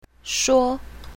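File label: "shuo1", pinyin, south, female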